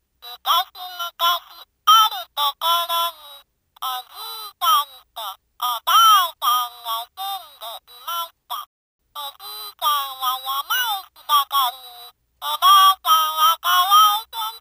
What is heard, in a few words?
rec test